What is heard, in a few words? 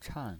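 "chan", Mandarin Chinese, fourth tone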